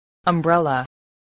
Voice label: "umbrella", English spelling voice

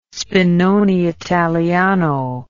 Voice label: "spinone italiano", English

